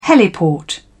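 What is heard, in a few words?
"heliport", British English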